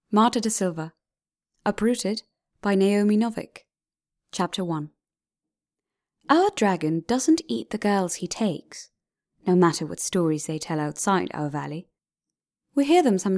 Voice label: Audiobook RP; Uprooted

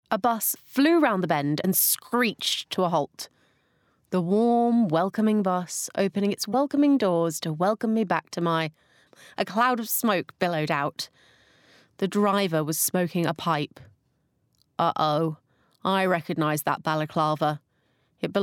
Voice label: Teen Bookread

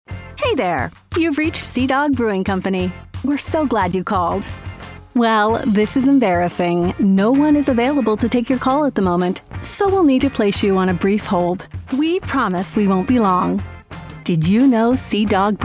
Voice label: Telephony

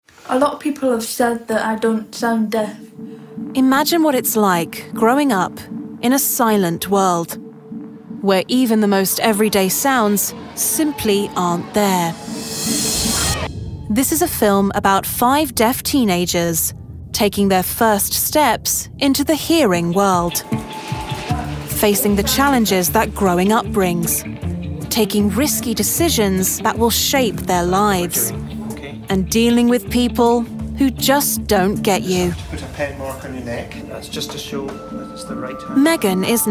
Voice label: Documentary and Narration

